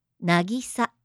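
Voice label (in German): "Nagisa", japanische Mädchennamen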